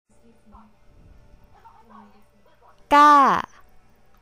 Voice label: Tone 3 is falling tone female